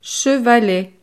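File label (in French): "chevalet", French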